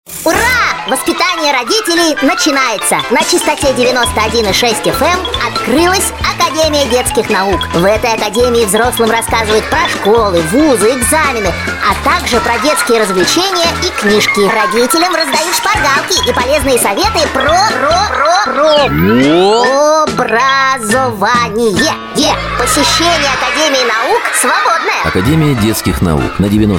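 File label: Russian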